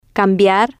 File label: "cambiar", spanish